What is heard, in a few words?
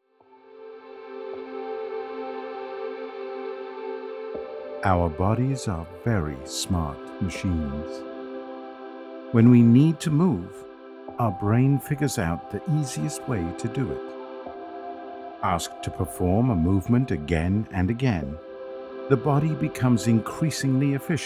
male British voiceover self help; audiobook; self help guide; yoga